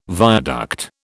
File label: "viaduct", British